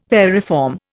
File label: "variform", Indian